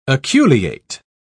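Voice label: "aculeate", English, British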